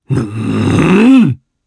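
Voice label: Vox Casting, Japanese; voices; heroes; Roman; kingsraid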